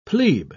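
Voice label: "plebe", British English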